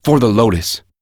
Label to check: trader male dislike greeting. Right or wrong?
Wrong